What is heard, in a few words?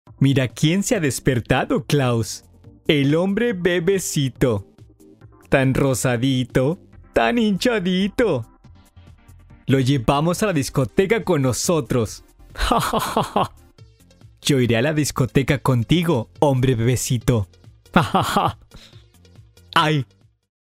locutor mexicano mexican voiceover; Mexican voice over. Mexican dubbing services